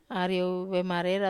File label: "ariou vemarera", Wamesa